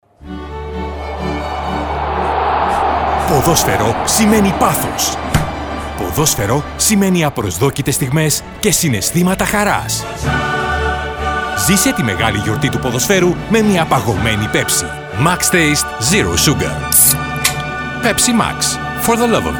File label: Radio; Pepsi Max; Champions Leaque